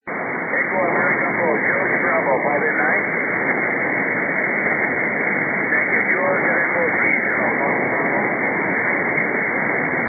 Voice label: 15m ssb